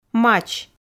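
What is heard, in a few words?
"мач", Komi, female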